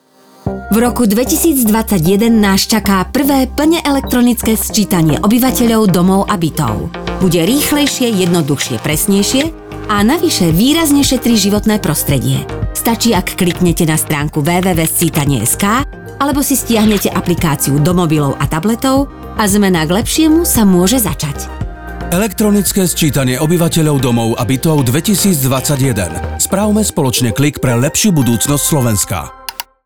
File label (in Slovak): Informacne RADIO